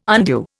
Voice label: "undue", Indian

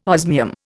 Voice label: "osmium", Indian

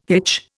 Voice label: "hitch", Indian

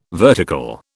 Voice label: "vertical", British

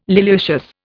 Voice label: "liliaceous", Indian

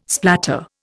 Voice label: "splatter", Indian

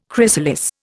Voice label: "chrysalis", Indian

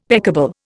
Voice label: "peccable", Indian; Dictionary

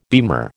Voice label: "beamer", American

